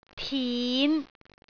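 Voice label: "tin5", Cantonese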